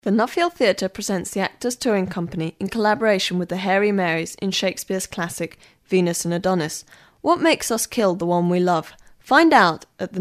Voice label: ad theatre; nuffield theatre venus and addonis; bailrigg fm

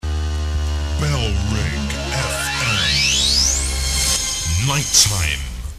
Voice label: male, reverse bed; bfm nighttime; bailrigg fm; bfm jingles ads RSL 1994

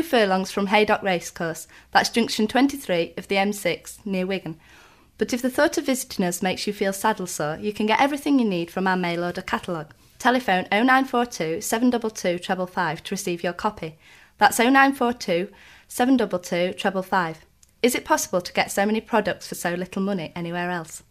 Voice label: ad country leisure; robinsons country leisure (horse junkie); bailrigg fm

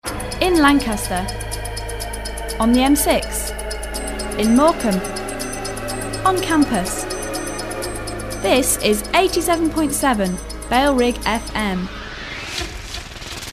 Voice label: female, sweep; in lancs, m6, more, campus; bailrigg fm

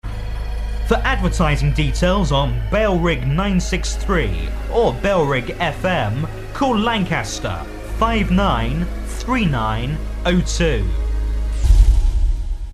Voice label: male, sweep; adv details on bfm or b963 call; bailrigg fm

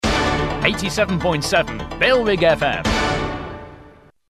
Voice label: male, double sting; bailrigg fm

